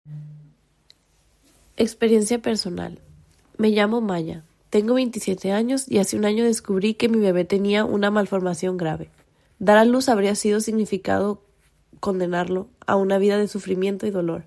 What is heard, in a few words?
Poland Spanish, Experiencia personal